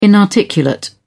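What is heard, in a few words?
"inarticulate", British English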